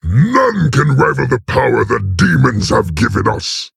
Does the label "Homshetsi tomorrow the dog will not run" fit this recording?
No